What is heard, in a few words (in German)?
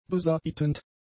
"besaitend", German; adjectives